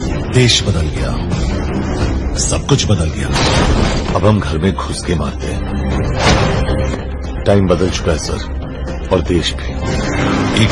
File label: Dialogue Ringtone